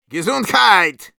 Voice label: battle cry, ru